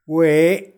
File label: "gweé", wound, macuiltianguis